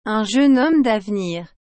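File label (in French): "un jeune homme d'avenir", French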